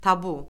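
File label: "tabu", Azerbaijani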